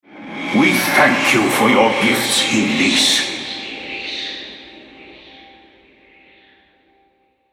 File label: altar capture, male, en US; Elise